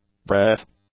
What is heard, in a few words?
"breath", English; nouns